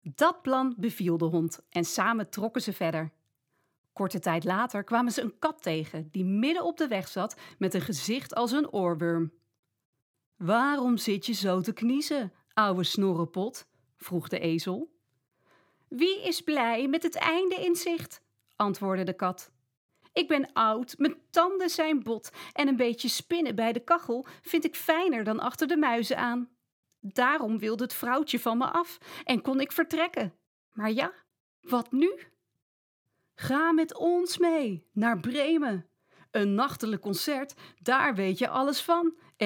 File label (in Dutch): Audioboek kindvriendelijk Voice-over Stemactrice; Bremer Stadsmuzikanten